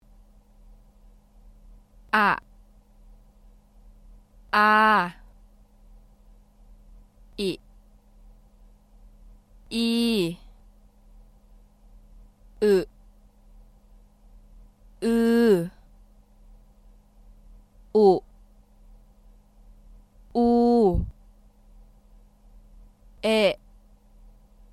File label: Thai Vowels